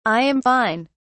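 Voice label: "i am fine", British English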